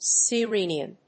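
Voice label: "sirenian", English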